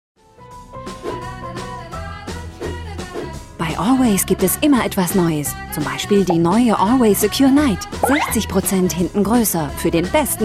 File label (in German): Sympathisch